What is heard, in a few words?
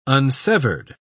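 "unsevered", British English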